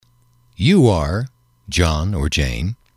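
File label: "you are", English, male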